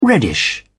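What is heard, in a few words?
"reddish", English, British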